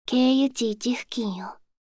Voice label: 経由地1付近よ, カーナビ 案内音声; カーナビ(で使えるかもしれない)案内音声

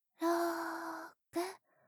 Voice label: ゆっくりカウント, ASMR

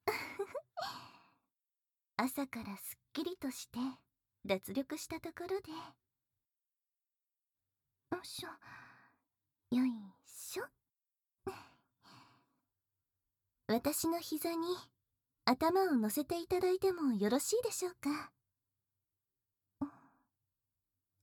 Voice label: 耳かき両耳（バイノーラル 効果音なし）

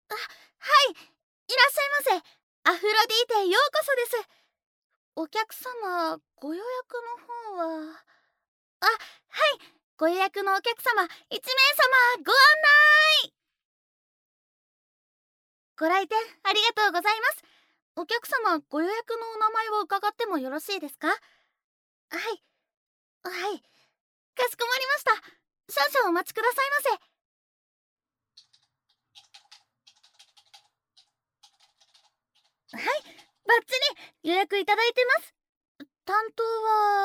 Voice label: 入店／挨拶／お店の紹介／コース説明, ASMR

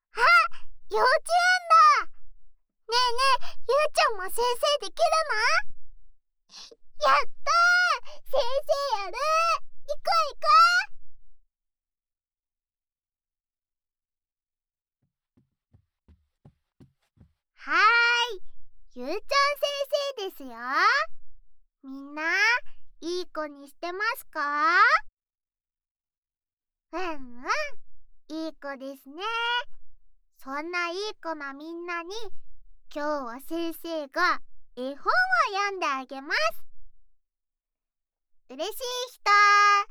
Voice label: 絵本朗読ASMR; えほん、よみます！

